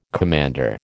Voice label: "commander", American